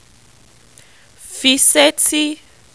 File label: "fi seti", Yoruba